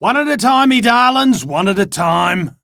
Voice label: Dialog Barks Battle, en; Warframe; Chipper